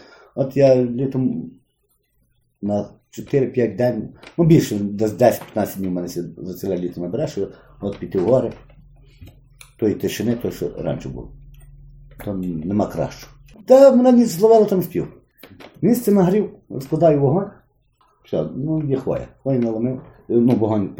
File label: loud